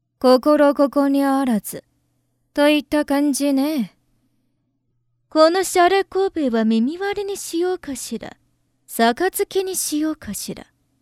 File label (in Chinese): 女 日语; 阎魔